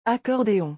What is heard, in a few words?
"accordeon", French; vocabulary; musical instruments